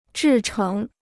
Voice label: "制成", Chinese

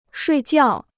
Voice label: "睡觉", Chinese; shuì jiào; to go to bed; to sleep

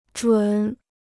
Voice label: "准", Chinese

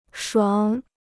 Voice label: "爽", Chinese

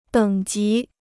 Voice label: "等级", Chinese

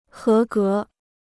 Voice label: "合格", Chinese

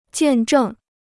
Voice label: "见证", Chinese

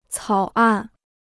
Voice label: "草案", Chinese

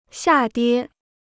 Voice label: "下跌", Chinese

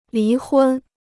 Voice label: "离婚", Chinese

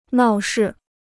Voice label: "闹事", Chinese

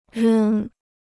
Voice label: "扔", Chinese

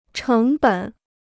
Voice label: "成本", Chinese

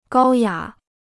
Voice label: "高雅", Chinese

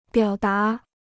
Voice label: "表达", Chinese

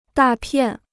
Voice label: "大片", Chinese

